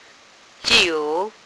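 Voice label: "jiu3", Mandarin Chinese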